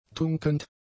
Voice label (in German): "tunkend", German; adjectives